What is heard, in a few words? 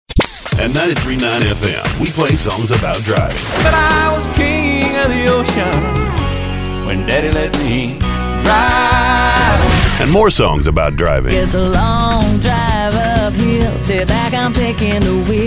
country, radio imaging